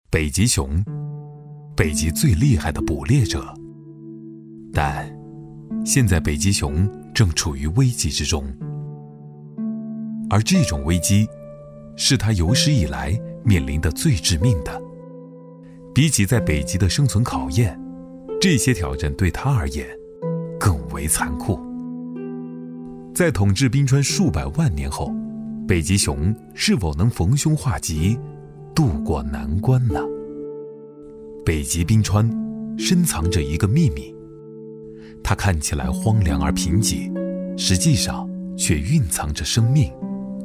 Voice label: 男, 叙述类纪录片

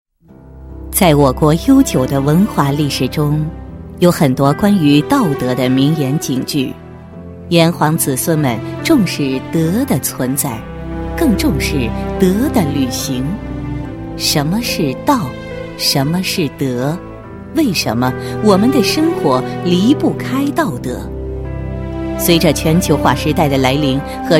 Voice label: 女 专题 电视专题样, 国语女声配音; 全能配音

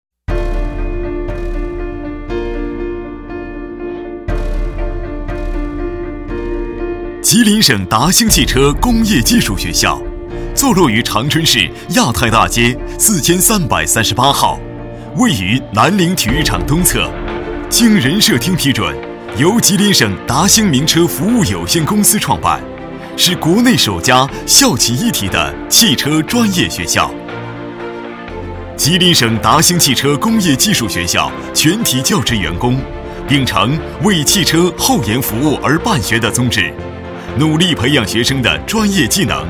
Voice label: 专题 汽车工业技校, 国语男声配音

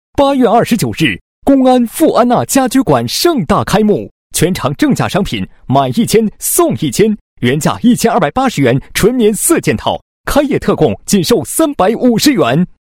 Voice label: 男 广告, 配音; 富安娜 开业特价

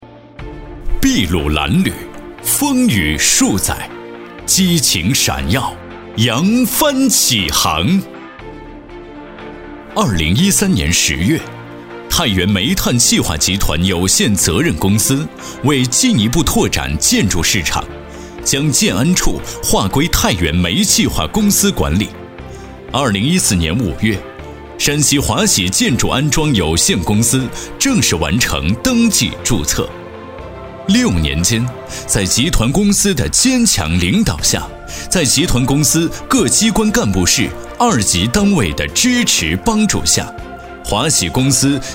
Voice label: 宣传片, 稳重

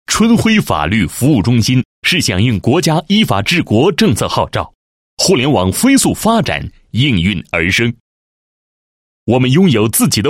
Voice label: 男 学校; 某工程学院创业大赛活动

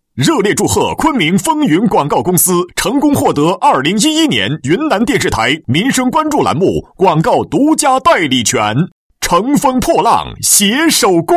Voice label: 力度广告, 国语男声配音; 风云广告

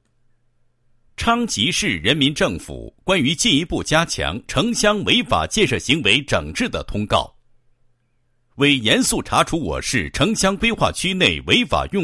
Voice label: 男 通告, 配音; 城乡违法建设行为的通告

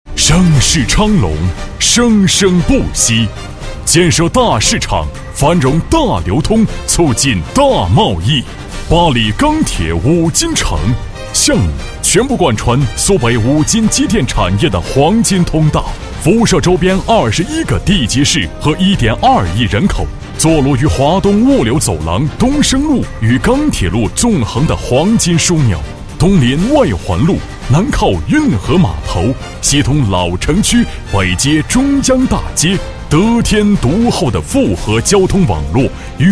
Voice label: 男 专题 恢弘大气

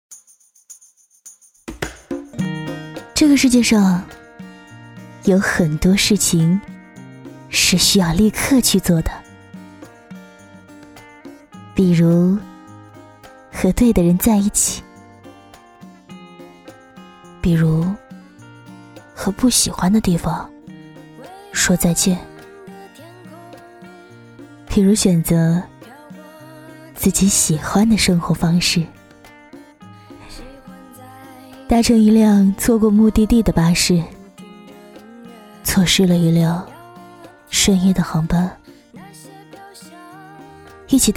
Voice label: 独白 婚纱

